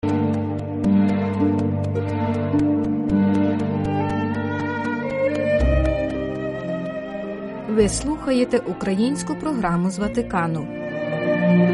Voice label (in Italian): ucraino